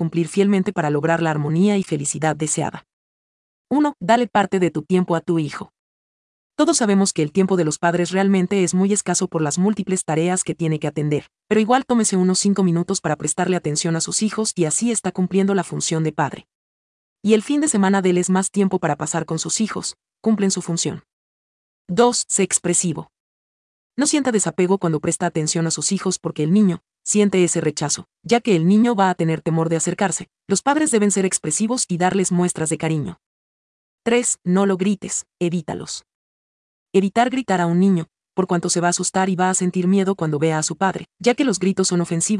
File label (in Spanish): Audio Libro Resumen Mujer; 10 claves para formar hijos triunfadores